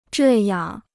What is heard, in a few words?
"这样", Chinese